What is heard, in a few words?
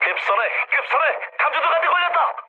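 Korean searchlight mood med, speech; War Thunder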